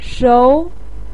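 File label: "shou2", Mandarin Chinese, pinyin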